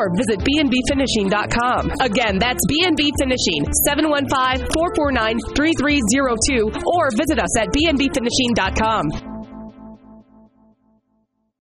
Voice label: Custom Cabinets Refacing Radio; BB Finishing